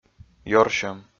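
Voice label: "ёрсьӧм", Komi, male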